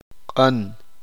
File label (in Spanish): uspanteko amarillo